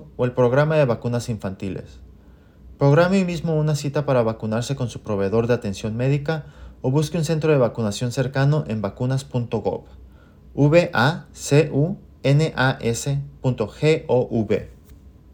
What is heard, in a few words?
ON HOLD Updated COVID 19 Spanish